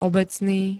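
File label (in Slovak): "obecný", Slovak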